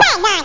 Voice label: awsome rap

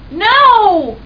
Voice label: impatient no

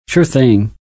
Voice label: Male Sure Thing; Survivor The Interactive Game; Player

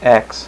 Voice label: "x" male, alphabet; Web Clip Empire 150,000

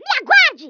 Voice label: you'll regret that, Brazil Portugal; Speech; International; CyberMycha Extra 2004

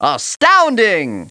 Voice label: ASTOUNDING, CARTOON VOICES

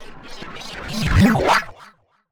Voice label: VOICEFX TEKNO 140, Techno, Voice

